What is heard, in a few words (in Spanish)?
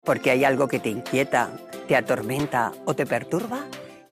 anuncio horoscopo; esperanza gacia